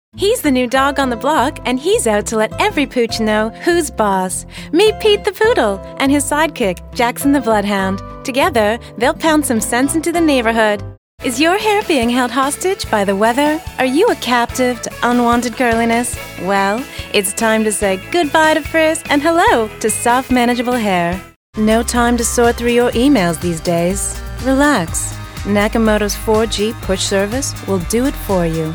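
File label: American English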